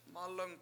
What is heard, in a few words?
mAlung your arm, Washo